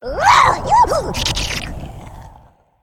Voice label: Female Murloc Elemental Attack